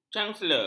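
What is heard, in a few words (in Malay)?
"canselor", Malay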